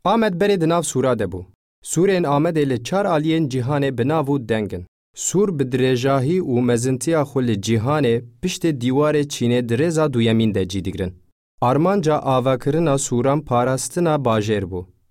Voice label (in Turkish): Kurtce Erkek Kurmanci, seslendirme; erkek sesler